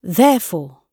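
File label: "therefore", British English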